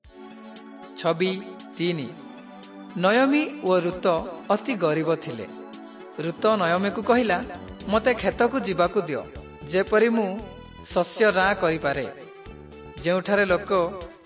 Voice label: southern oriya; servants of god